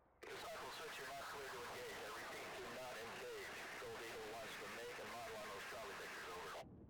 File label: Radio chatter